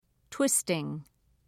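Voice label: "twisting", English, British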